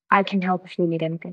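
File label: Voice Acting es, female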